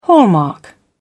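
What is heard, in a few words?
"hallmark", British English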